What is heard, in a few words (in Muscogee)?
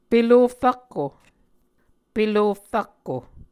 "pelof rakko", Muscogee